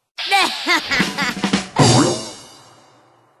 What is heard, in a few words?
female; idiot savant